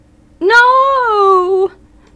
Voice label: dramatic no

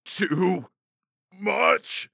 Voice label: Knight Overwhelmed

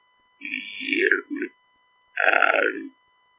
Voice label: monster dialog

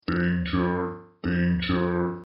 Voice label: robot voice

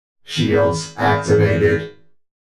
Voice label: shields activated; Video Game Announcer; DROID

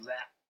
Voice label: booleh, silly sound effects

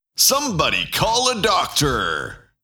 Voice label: somebody call a doctor, video game announcer; BANTER MAN

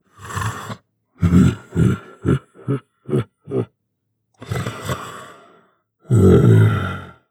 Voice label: Dragon Taunt

